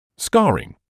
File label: "scarring", English